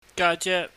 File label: "gaje", Cantonese